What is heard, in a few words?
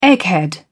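"egghead", British English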